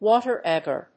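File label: "water agar", English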